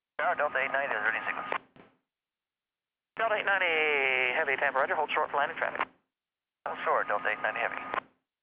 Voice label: chatter; rigs of rods